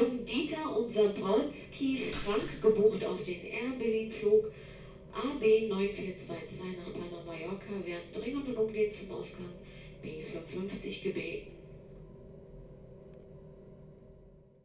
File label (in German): Achtung bitte die noch fehlenden Passagiere, Flughafen Ansagen